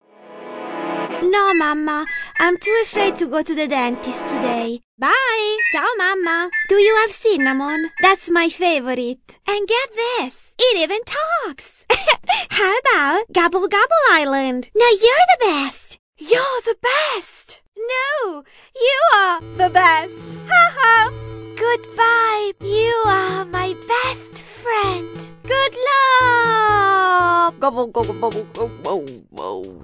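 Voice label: IT AccEN Characters, Italian accented English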